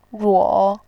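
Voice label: "ruo", Mandarin Chinese, third tone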